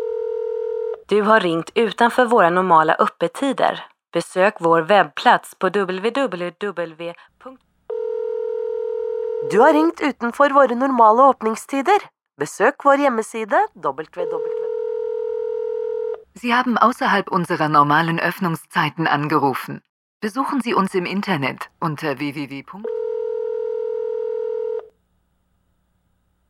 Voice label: Phone DK Multi Language